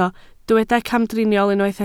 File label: "camdriniol", Welsh